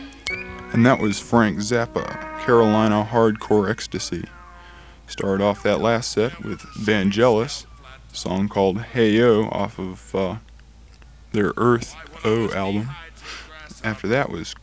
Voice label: narration; CRS